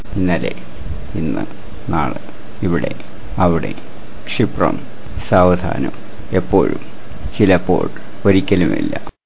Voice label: malayalam time